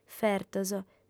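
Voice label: "fertos", Sardinian, female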